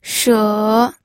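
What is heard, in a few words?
"she3", Mandarin Chinese pinyin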